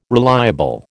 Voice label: "reliable", American